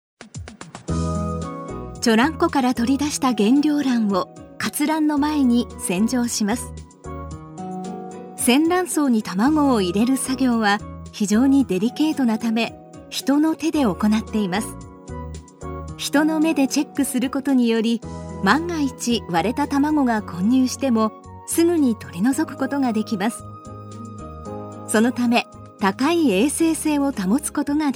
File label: Japanese narration